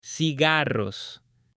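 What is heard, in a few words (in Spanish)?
"CIGARROS" Spanish; AppLenguaje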